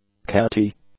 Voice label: "catty", English; adjectives